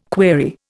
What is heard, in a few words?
"query", Indian